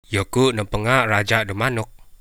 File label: i am the king of the chickens, central dusun